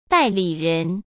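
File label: "代理人" Mandarin Chinese; hsk; chinese-flashcards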